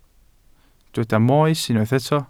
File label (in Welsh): "moes" Welsh